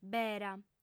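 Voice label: "bera", lingua sarda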